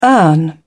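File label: "earn", British English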